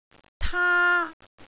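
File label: "taa1", Cantonese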